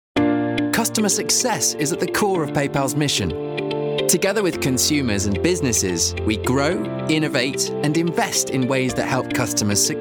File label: Elearning